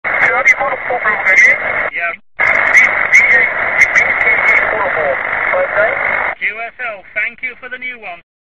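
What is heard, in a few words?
amateur radio contact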